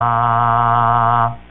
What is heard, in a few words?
Vibrato, voder